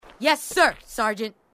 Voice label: marine female; marine tough; h2dialogue